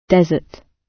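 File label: "desert", British English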